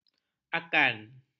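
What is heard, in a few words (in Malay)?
"akan", Malay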